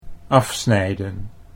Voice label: "afsnijden", Dutch